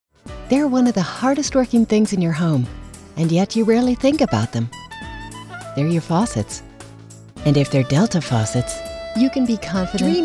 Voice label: Comm, female voice

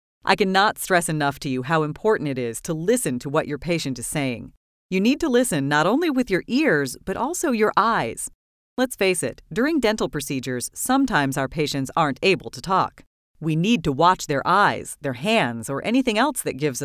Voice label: narr, female voice talent

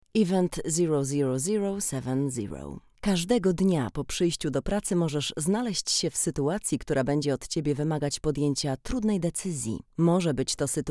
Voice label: Polish; foreign languages

